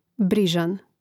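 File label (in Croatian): "brizan", Hrvatski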